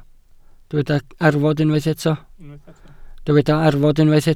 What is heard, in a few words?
"arfod", Welsh